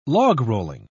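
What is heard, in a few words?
"logrolling", British English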